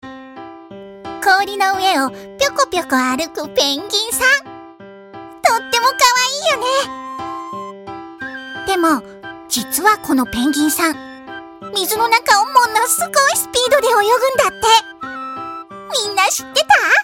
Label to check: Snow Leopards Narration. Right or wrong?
Wrong